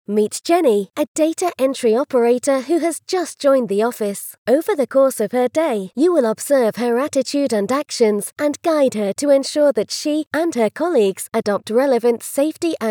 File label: english british e learning